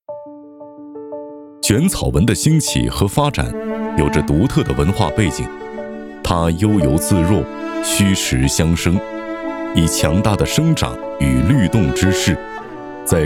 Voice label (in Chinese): 男, 纪录片; 中国纹样